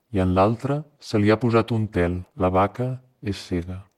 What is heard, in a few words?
speech female